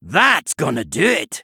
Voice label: Male Dwarf After Triple